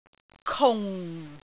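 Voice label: "kung4", Cantonese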